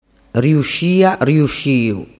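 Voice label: "riusciasciu", Sicilian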